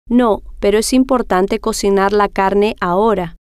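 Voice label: no Pero Es Importante Cocinar Carne Ahora, Spanish, female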